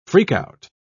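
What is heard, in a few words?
"freak-out", British English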